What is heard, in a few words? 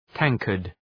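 "tankard", English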